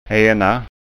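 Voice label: "ena", Scanian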